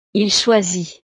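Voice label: "il choisit", French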